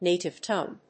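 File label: "native tongue", English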